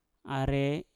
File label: "are", Mpur; burung cenderawasih